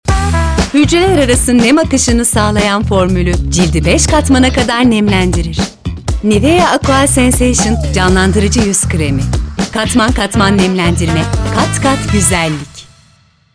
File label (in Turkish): reklam; nivea